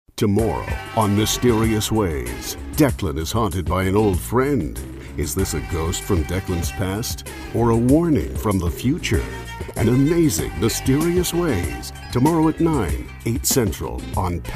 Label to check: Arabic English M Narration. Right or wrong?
Wrong